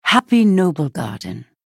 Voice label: vo happy noblegarden